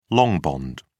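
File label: "long bond", British English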